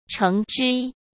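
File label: "橙汁", Mandarin Chinese; chinese flashcards; hsk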